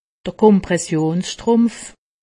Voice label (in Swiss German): "strumpf", alsace; medecine